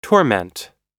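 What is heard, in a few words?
"torment", American English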